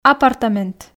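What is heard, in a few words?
"апартамент", Komi, female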